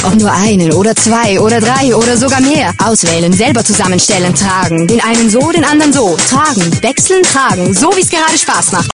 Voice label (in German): Radio-Spot d ch; Radiowerbung Christ - hochdeutsch; Professionelle Sprecherin